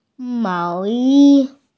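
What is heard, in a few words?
"sweater", Mandarin Chinese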